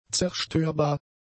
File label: "zerstörbar", German; adjectives